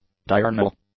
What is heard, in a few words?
"diurnal", English; adjectives